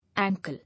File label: "Ankle", English